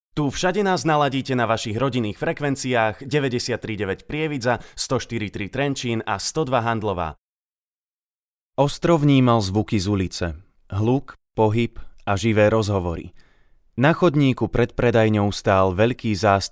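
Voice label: Slovak, male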